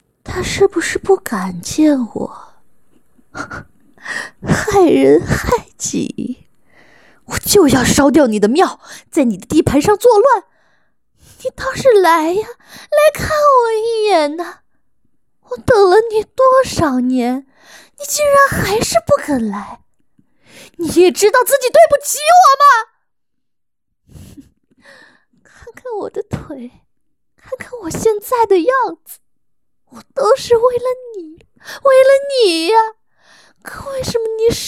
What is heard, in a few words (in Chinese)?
病娇类角色样音